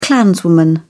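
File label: "clanswoman", British English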